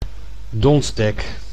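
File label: "donsdek", Dutch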